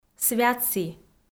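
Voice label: "святсі", Komi, female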